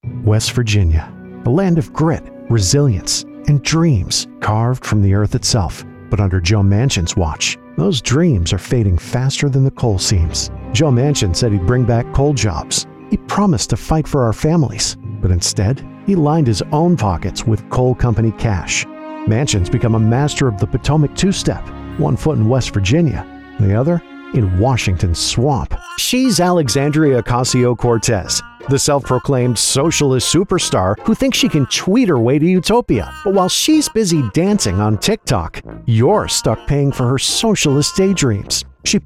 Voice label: Political Republican, Male Political Commercial Voiceover